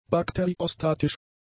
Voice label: "bakteriostatisch", German; adjectives

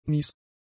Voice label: "mies", German; adjectives

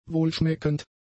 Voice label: "wohlschmeckend", German; adjectives

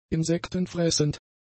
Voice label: "insektenfressend", German; adjectives

